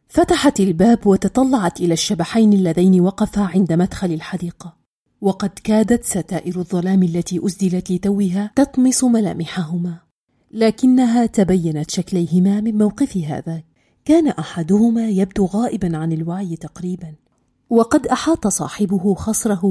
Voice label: arabic audiobooks, arabic voice actors